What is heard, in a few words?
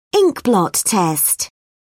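"inkblot test", British English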